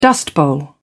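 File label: "dust bowl", British English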